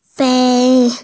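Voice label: "fei1", Chinese, F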